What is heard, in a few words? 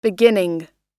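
"beginning", US English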